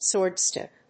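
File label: "swordstick", English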